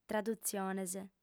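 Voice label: "tradutziones", Sardinian, female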